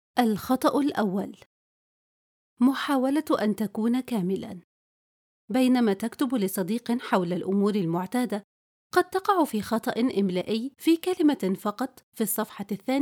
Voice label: كتاب صوتي

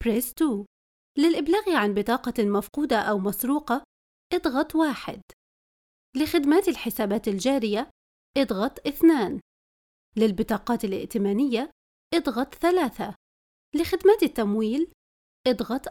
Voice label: IVR الراجحي

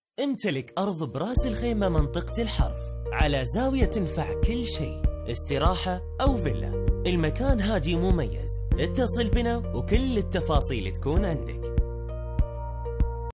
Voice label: عمل اماراتي

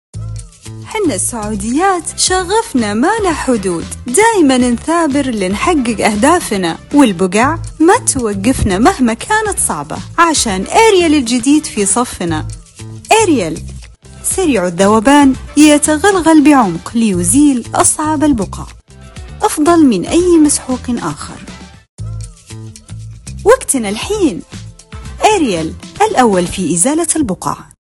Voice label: إعلان; اريال

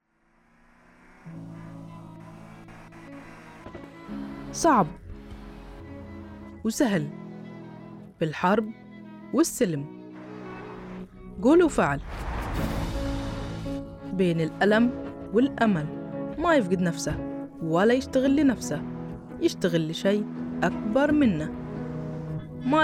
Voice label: اعلان; مرسول

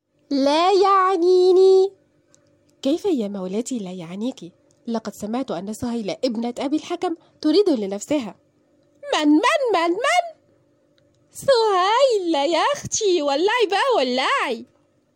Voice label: دوبلاج

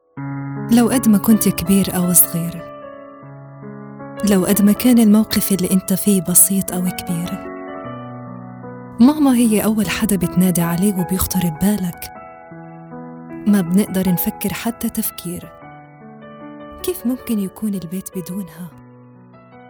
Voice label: عينة أعمال لهجات شامية

